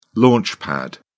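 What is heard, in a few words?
"launch pad", British English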